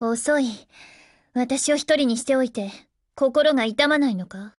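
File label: HELLO Japanese, Voice; GF Alarm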